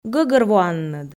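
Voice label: "гӧгӧрвоанныд", Komi, female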